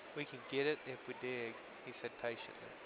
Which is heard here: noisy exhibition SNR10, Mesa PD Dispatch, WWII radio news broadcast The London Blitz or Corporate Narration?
noisy exhibition SNR10